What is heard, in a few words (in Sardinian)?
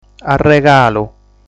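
"Arregalu", Sardinian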